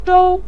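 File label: "zhou" neutral tone, Mandarin Chinese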